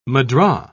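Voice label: "mudra", British English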